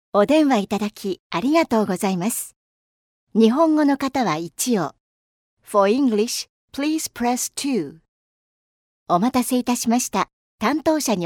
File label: languages women narration japanese